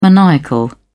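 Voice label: "maniacal", British English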